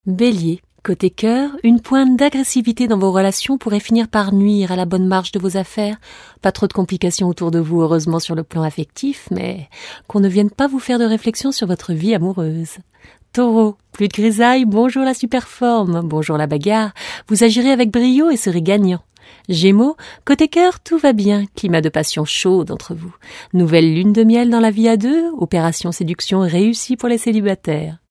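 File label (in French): horoscope, voix off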